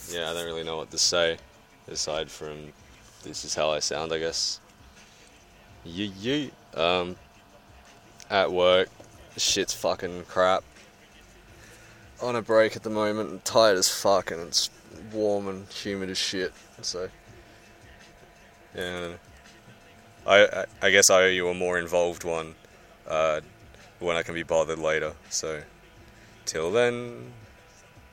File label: rake voice